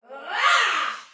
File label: Attack Heavy Soldier Female, Battle Barks; Dialog; Sinecure